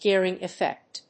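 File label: "gearing effect", English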